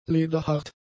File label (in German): "lederhart", German; adjectives